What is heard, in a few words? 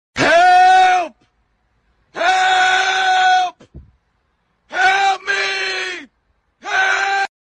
help me sound effect; made with Voicemod